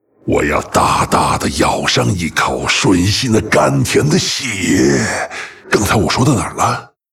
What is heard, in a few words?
pissed, zh; Varimathras